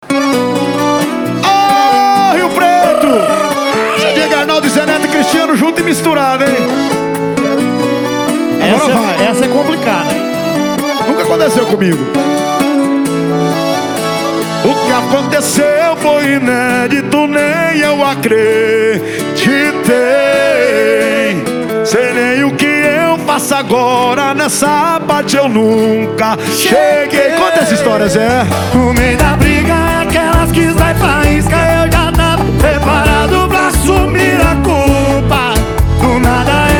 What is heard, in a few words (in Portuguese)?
locutor a voz magnifica